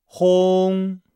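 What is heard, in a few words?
"hong", Chinese; Nihao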